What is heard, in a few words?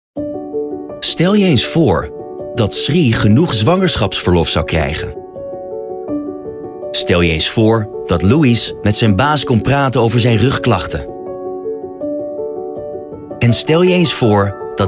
Male 35 45 years Medium, dutch netherlands